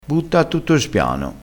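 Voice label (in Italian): "a tutto spiano", dialettale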